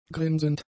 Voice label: "grinsend", German; adjectives